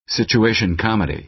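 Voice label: "situation comedy", American English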